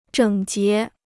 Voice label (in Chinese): "整洁", Chinese